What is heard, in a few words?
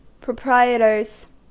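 "proprietors", US English; pronunciations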